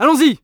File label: France Order; Civ4